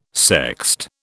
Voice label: "sext", British